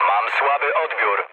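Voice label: Polish chat; speech; War Thunder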